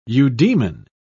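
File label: "eudemon", British English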